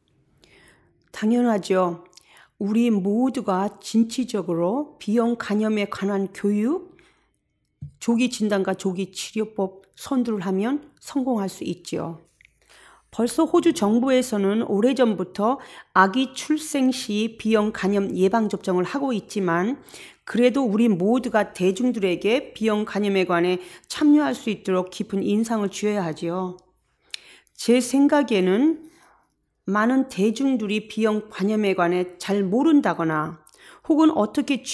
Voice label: Korean; hepatitis; AskHepatitisCampaign